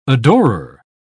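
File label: "adorer", British English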